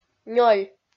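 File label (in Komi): "нёль", Komi, female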